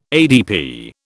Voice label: "ADP", British